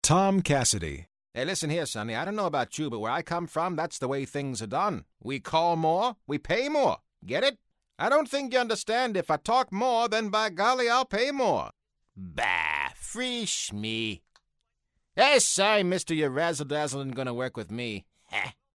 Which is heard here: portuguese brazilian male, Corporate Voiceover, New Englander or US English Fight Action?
New Englander